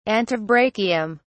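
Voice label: "antebrachium", British English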